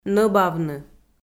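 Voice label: "нӧбавны", Komi, female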